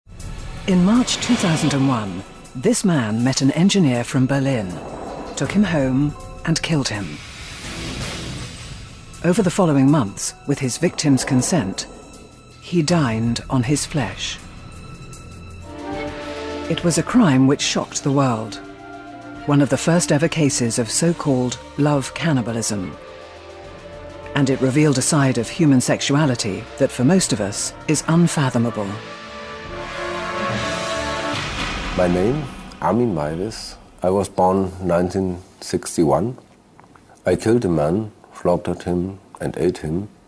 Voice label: documentary